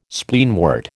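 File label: "Spleenwort", American English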